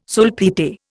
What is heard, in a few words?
"sulphite", Indian